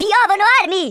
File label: drop, speech, Italian